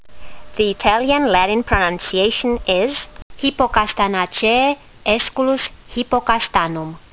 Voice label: latin; Aesculus hippocastanum